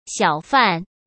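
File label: "小贩", Mandarin Chinese; chinese-flashcards; hsk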